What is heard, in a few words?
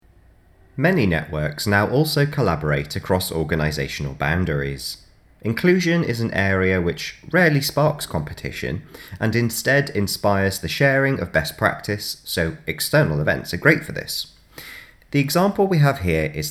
Narration; Radius; Widening Your Network